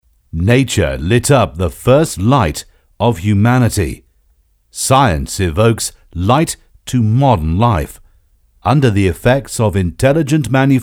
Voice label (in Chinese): 外籍英语英式大气磁性